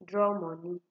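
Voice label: "draw money", Nigerian Pidgin, female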